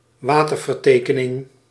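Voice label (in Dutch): "waterverftekening", Dutch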